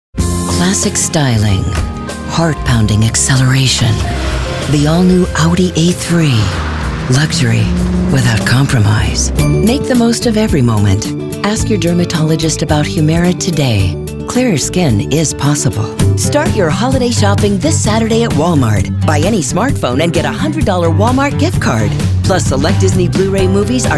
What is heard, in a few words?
Commercial